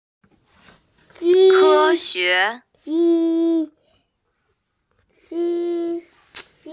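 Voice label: "ke xue", Mandarin Chinese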